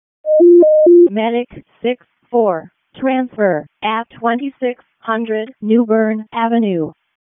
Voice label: EMS ALERT; wake p25